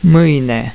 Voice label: "maine", romanian; tijden